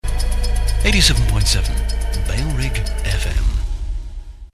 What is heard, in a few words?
low male, sting; bailrigg fm; bfm jingles ads RSL 1995